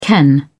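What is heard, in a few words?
"ken", British English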